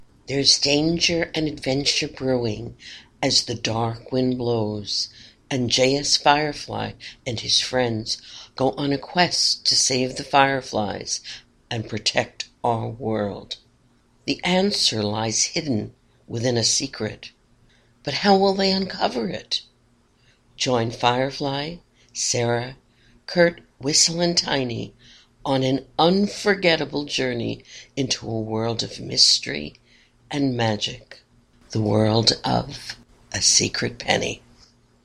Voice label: Book Reading